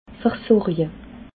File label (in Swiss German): "vorsorje", alsace